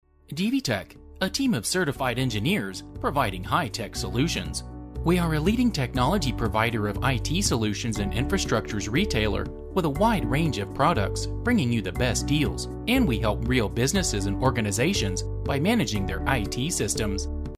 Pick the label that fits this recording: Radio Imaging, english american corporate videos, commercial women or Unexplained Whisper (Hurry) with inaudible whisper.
english american corporate videos